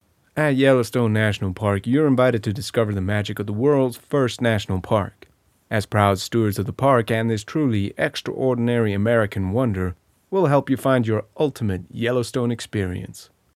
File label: Accent American Corporate